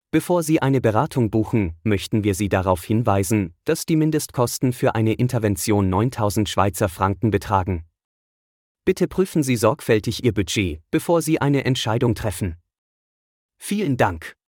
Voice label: Vocal pop up german